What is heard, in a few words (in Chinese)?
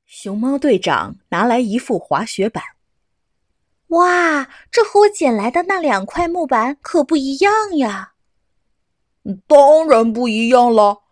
科普类 漫画 配音 双角色